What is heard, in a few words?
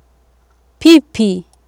"pipi", Swahili